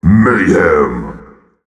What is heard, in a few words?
kills, announcer, male